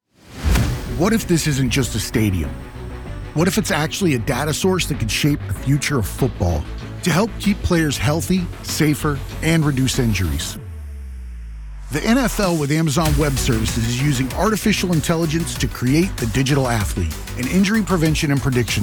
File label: Game Analysis Enhanced Safety Voiceover; AWS NFL Collaboration